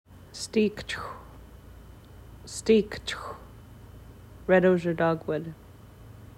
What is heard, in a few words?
stikcxʷ, Nsyilxen Plant Names; red osier dogwood